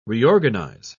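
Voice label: "reorganise", English, British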